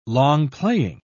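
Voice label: "long-playing", British English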